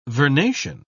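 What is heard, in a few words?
"vernation", British English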